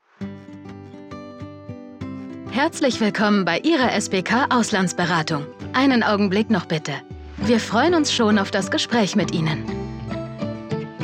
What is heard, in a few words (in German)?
Telefonansage; SBK